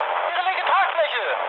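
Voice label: German left wing mood high, speech; War Thunder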